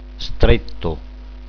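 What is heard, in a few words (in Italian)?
"stretto", Italian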